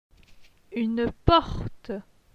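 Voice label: "Une porte", French; phonetique; les voyelles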